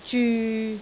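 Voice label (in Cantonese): "zyu6", Cantonese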